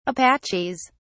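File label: "apaches", British English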